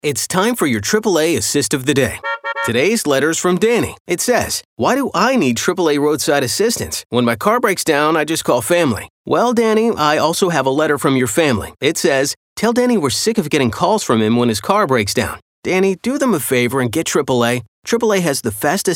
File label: RADIO